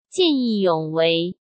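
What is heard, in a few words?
"见义勇为", Mandarin Chinese; hsk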